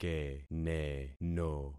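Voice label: "keneno", concatenated syllables; Affixing experiment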